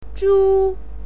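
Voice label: "zhu1", Chinese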